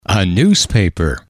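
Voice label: "a newspaper", English, male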